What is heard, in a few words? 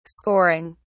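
"scoring", British English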